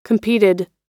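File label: "competed", American English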